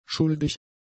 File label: "schuldig", German; adjectives